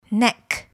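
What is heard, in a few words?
"neck", English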